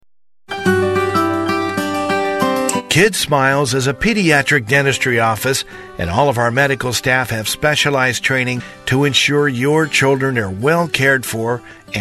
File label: Kids On Hold Message; Dentist One